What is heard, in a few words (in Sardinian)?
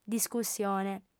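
"discussione", female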